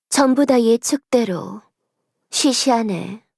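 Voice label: Victory, voice, Korean; Eve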